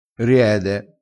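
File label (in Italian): "riede", vocabolario dialettale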